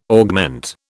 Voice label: "augment", British

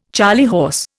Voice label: "charley horse", Indian

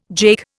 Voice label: "jake", Indian

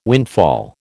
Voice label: "windfall", American

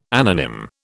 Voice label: "anonym", British

